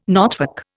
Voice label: "knotwork", Indian

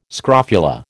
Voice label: "scrofula", American

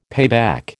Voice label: "payback", American

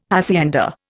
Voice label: "hacienda", Indian

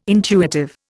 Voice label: "intuitive", Indian

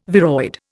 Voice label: "viroid", Indian

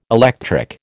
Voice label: "electric", American

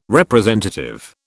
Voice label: "representative", British

